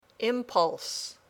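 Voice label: "impulse", English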